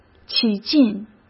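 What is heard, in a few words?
"起劲", Mandarin Chinese; chinese-flashcards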